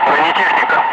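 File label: Russian speech, Ka-50 Wingman; armor target; DCS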